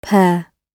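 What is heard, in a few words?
"pair", British English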